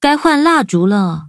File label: levelup zh-hant; Cookie Run